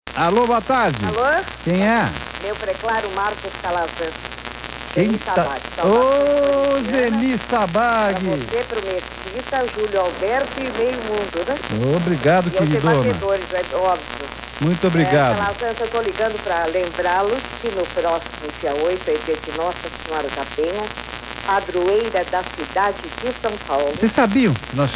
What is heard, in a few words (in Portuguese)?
Microfone Aberto; Trianon; NS Penha